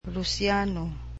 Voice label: "Russian", cebuano